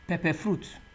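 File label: "pepperfruit", Nigerian Pidgin, male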